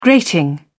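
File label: "grating", British English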